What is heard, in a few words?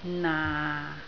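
"naa6", Cantonese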